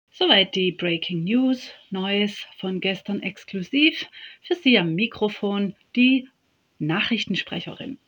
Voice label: Jingel Breaking News

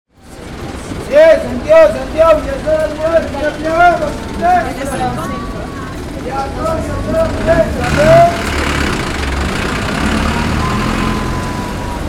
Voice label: Bus cobrador ruft ziele aus

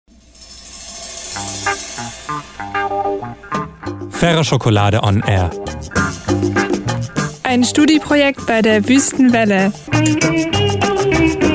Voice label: Jingle Anmoderation

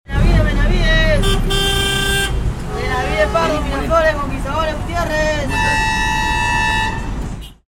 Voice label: busausrufer

